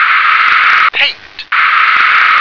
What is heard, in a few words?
"paint", English